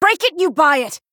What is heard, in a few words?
Female Human Attack